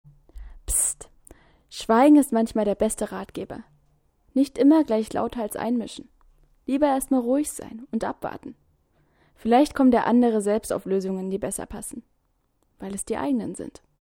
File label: voice over